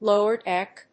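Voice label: "lower deck", English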